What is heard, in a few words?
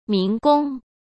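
"民工", Mandarin Chinese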